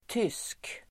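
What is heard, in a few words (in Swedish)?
"tysk", Swedish; Uttal: [tys:k]; tysk adjektiv, German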